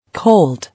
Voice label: "Cold", English